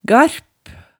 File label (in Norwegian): "garp", hemsedalsmaalet